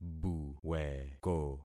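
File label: "buweko", concatenated syllables; Affixing experiment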